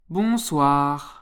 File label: "bonsoir", French; Good evening; (during the evening)